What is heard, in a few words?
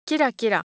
Japanese Color Visual, Ideo Phones